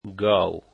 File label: "cow", dari